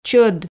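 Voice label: "чод", Komi, female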